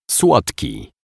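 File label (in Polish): "slodki", Polish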